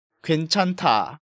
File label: "Gwaenchanda", Korean